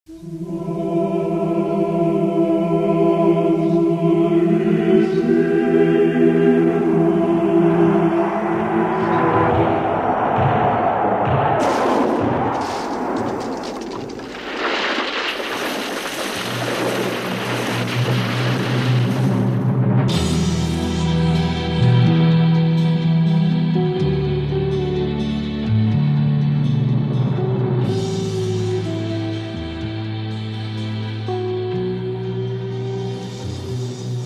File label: megaphone